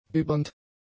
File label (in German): "bibbernd", German; adjectives